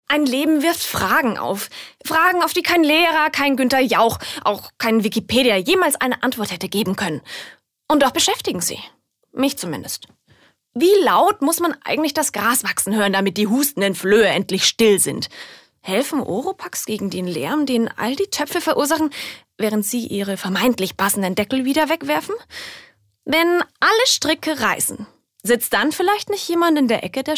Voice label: Schauspiel Energisch

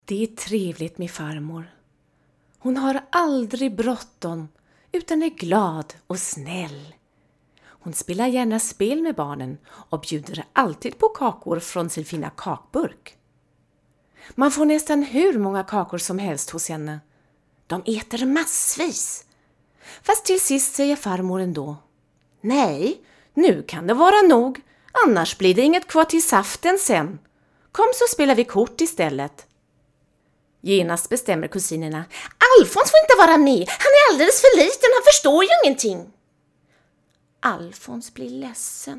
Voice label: Schauspiel, Freundlich

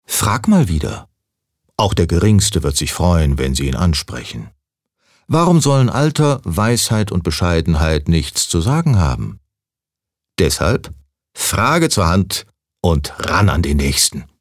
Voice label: Werbung Neutral

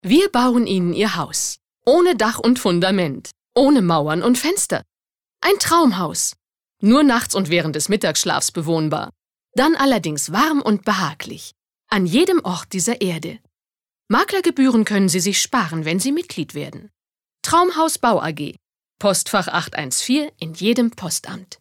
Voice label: Werbung Freundlich

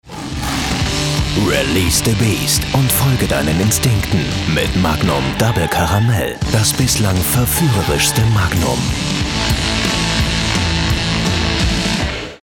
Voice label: Fremdtake Dynamisch

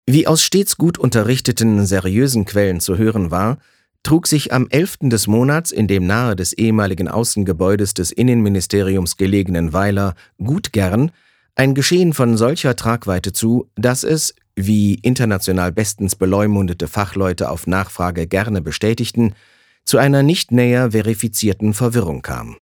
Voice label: Trocken Neutral

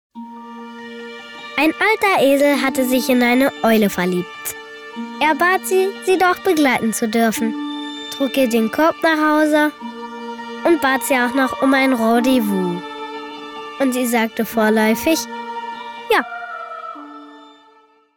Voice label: Freundlich

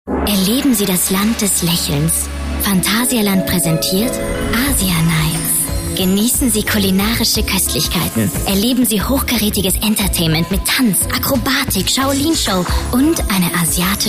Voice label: Schauspiel Sanft

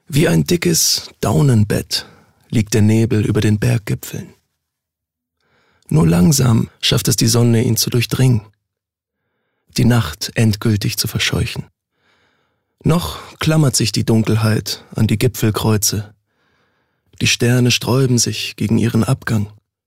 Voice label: Schauspiel Sanft

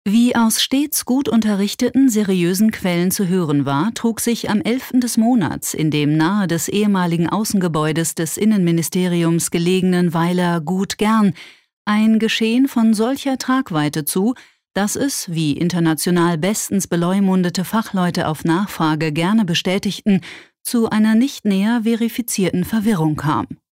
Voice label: Trocken Neutral

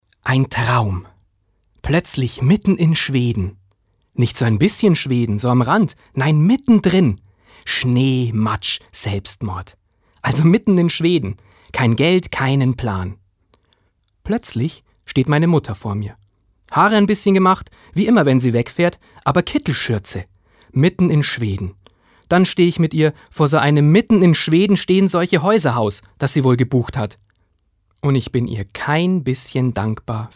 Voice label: Schauspiel, Freundlich

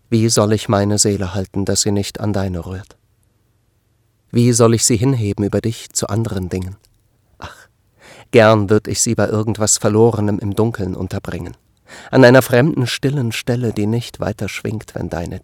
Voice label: Poetisch

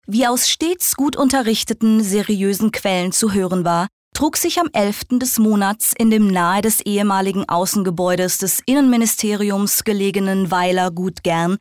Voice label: Trocken Neutral

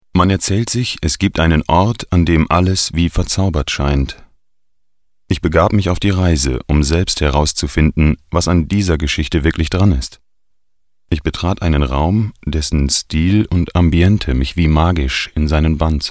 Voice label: Schauspiel Neutral

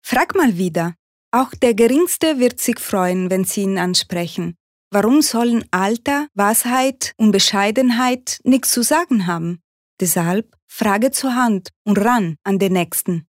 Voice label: Akzent Italienisch

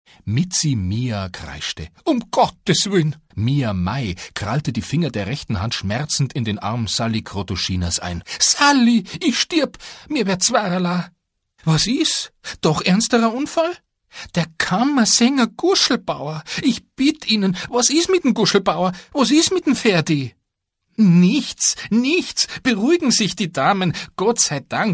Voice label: Dialekt Bayerisch

